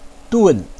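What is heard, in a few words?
"dun", Mandarin Chinese, fourth tone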